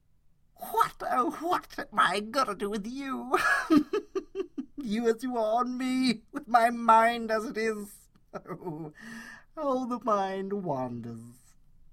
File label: mad villain